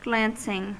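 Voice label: "glancing", US English; pronunciations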